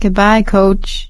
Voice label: survivor mourn, survivor voice; Left 4 Dead 2; producer